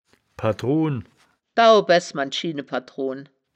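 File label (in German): "padrun", wittlicher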